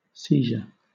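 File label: "seizure", British English; pronunciations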